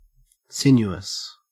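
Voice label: "sinuous" English, Australian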